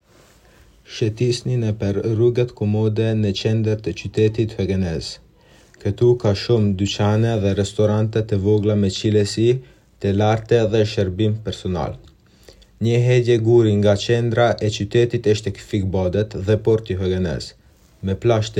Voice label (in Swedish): Höganäs Stadskärna albanska